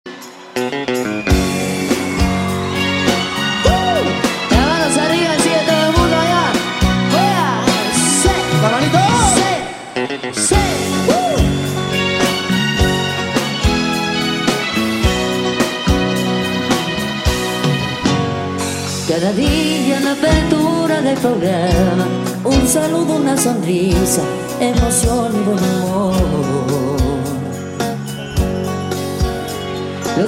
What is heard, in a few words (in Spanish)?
locutor apasionado; Ruta 66